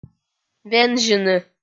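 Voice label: "вензьыны", Komi, female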